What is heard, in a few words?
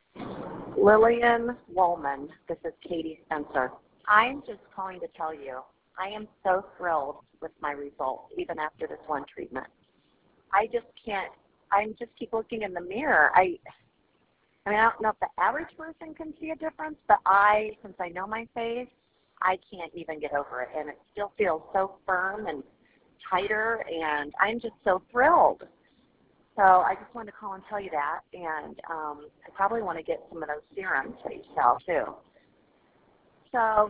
voicemail